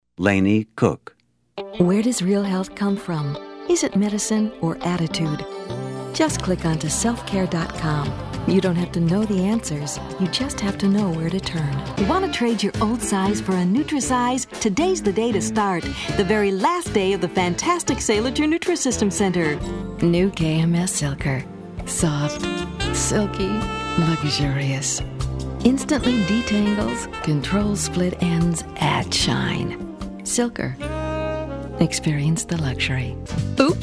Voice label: VO DEMOS Women